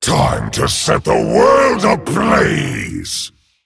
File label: Male Dragon Picked